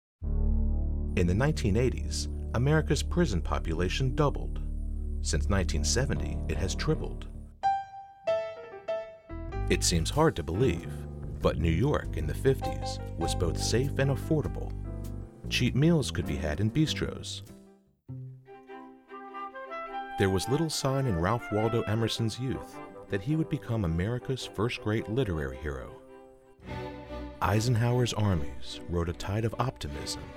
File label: Narrative